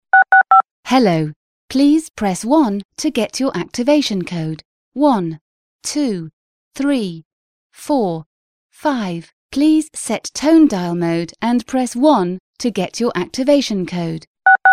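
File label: British, woman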